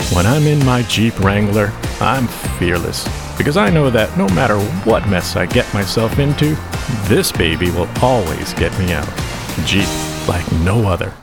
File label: Commercial rugged masculine